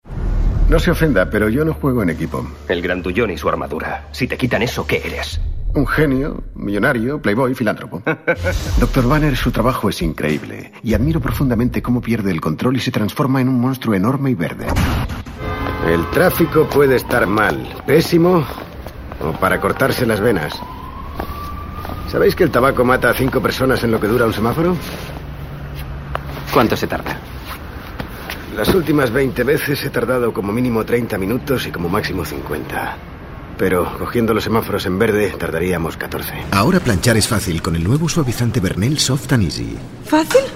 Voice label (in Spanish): Masculino